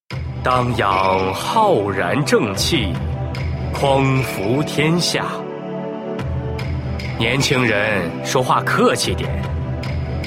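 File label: male middle-aged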